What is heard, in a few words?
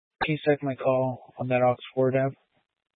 Police Dispatch, Scanner audio recording, Grand Junction, Mesa County, Colorado; Police 1 Dispatch